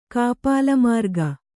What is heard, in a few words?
"ಕಾಪಾಲಮಾರ್ಗ", Kannada